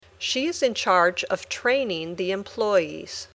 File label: Dictation